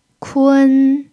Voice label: "kun1", chinese